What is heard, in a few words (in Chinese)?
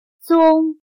"踪", chino